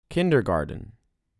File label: "Kindergarten" US